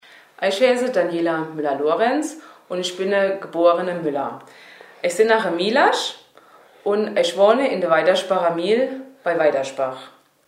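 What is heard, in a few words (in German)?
vorstellung, Hunsrück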